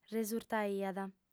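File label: "resurtaiat", Sardinian, female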